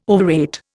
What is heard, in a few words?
"overrate", Indian